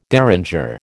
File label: "derringer", American